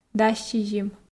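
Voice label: "дас сизим", Komi, female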